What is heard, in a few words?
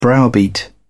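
"browbeat", British English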